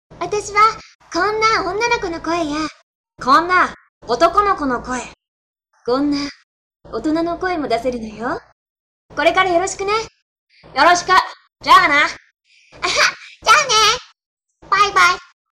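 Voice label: Japanese characters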